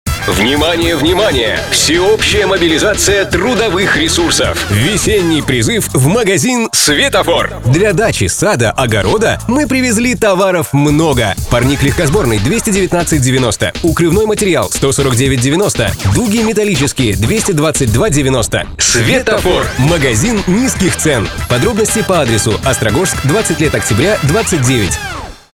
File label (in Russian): reklama